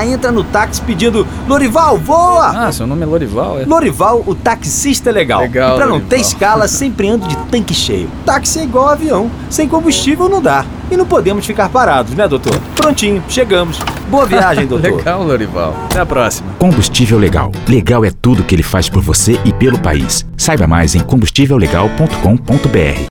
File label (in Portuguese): Spot Aeroporto; Plural